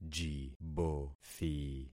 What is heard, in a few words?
"jibothi", concat syllables; Affixing experiment